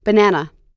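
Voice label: "banana", American English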